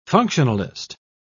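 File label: "functionalist", British English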